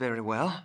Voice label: Male; baldurs1